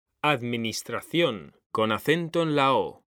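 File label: "administración", Spanish, male; mekanta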